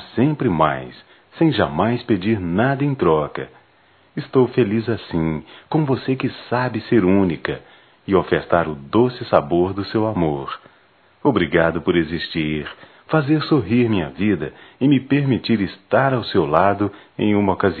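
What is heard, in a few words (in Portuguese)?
Páscoa Romântica, Masculino; Telemensagens; Só Voz; Telemensagens 2017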